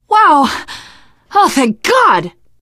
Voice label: hurrah, teen girl, survivor voice